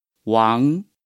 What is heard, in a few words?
"王", Chinese